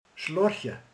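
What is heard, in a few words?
"csoszog", Hungarian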